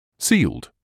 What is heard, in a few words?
"sealed", English